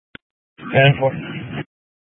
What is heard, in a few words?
Scottsdale Police, Simulcast